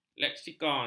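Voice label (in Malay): "leksikon", Malay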